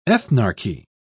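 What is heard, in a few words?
"ethnarchy", British English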